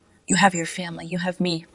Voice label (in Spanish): Voice Acting es